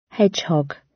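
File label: "hedgehog", British; Flora and fauna, agriculture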